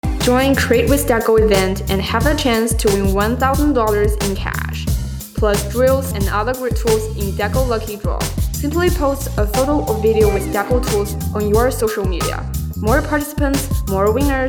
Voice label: 活动广告视频; 活动广告视频成片

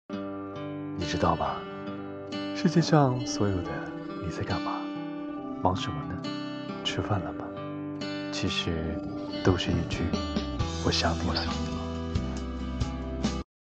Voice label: 温柔男声

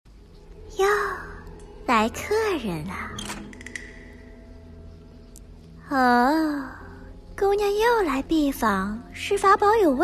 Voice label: 动漫配音合集

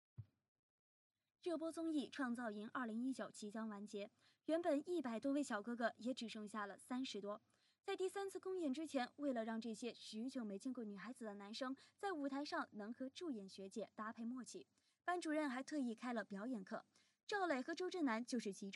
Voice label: 综艺配音

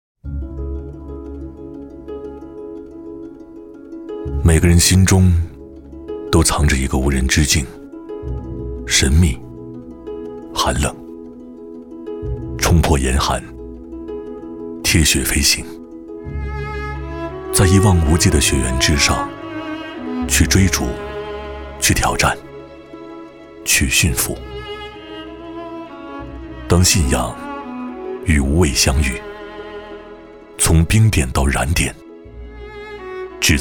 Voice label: 讲述 走心; 每个人心中

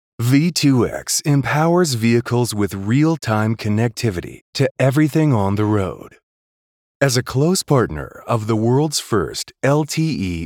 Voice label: 宣传片大气力度

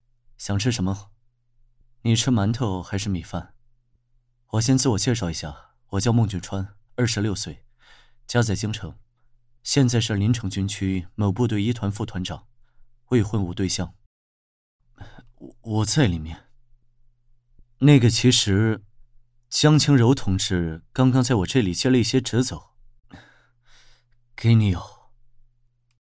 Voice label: 霸总; 土拨鼠

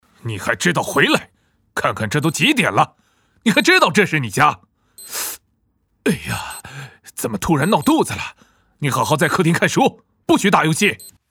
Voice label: 角色中年父亲

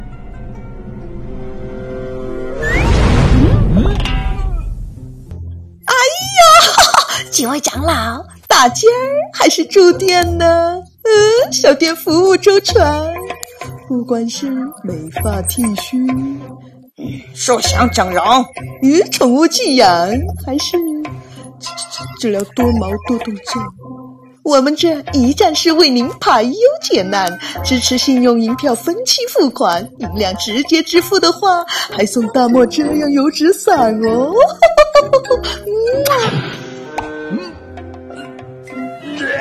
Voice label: 动画片人物配音客栈老板娘

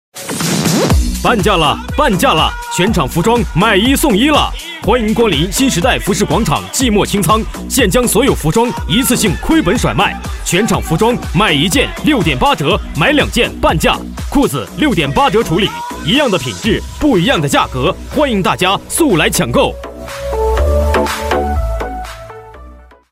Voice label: 促销厚重稳重

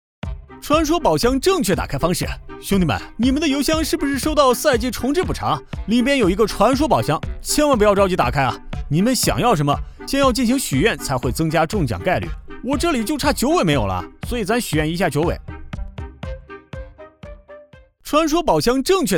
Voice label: 抖音信息流; 抖音信息流打开方式

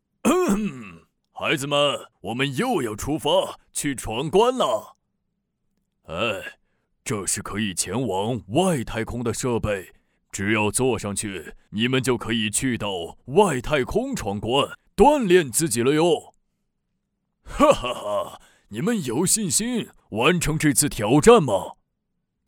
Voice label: 数学配音; 狮子局长

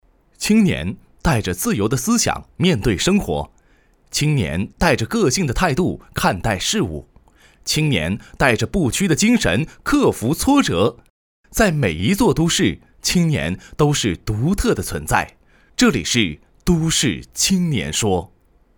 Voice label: 节目版头

